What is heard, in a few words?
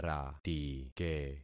"ratike", concat syllables; Affixing experiment